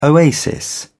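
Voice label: "oasis", English, British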